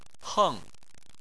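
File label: "heng", Chinese, fourth tone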